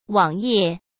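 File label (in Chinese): "网页", Mandarin Chinese; hsk; chinese-flashcards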